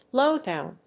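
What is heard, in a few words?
"blowdown", English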